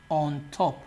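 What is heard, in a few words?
"ontop", Nigerian Pidgin, male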